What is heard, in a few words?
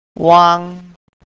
"uang" Mandarin